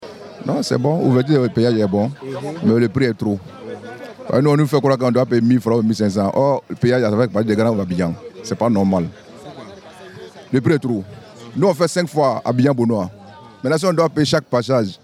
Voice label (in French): vox pop peage